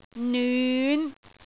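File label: "nyun5", Cantonese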